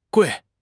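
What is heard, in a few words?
"gui4", Chinese; HSK2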